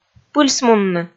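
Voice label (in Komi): "пыльсмунны", Komi, female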